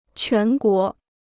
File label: "全国", Chinese